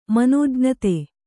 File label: "ಮನೋಜ್ಞತೆ", Kannada